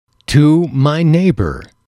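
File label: "to my neighbor", English, male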